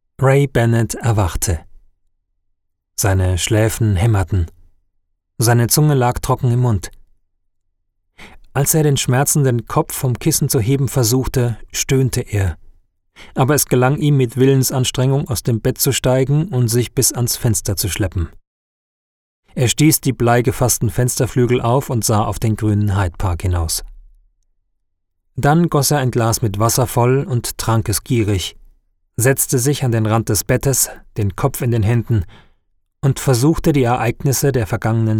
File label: Audiobook; Hörbuch